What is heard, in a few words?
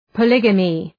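"polygamy", English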